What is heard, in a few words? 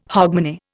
"hogmanay", Indian